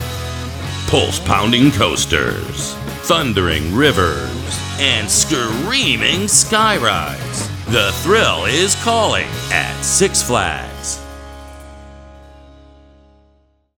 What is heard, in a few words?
Professional Male Voice Over Talent; SIX FLAGS